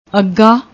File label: "auga", Punjabi; ਅੱਗਾ